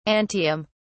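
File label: "antihum", British English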